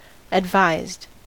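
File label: "advised", US English; pronunciations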